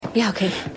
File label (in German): Frau; Bei Dion; The Noel Diary